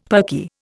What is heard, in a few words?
"perky", Indian; Dictionary